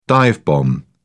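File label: "dive-bomb", British English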